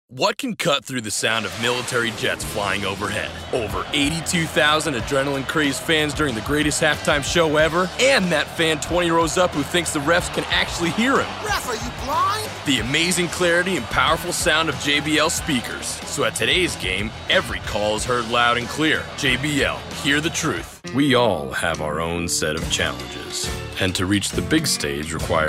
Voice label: Commercial